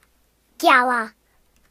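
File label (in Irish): "moon", Irish; gcompris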